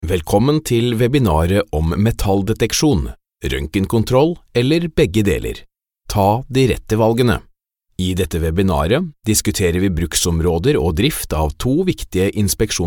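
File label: Norwegian, male, informative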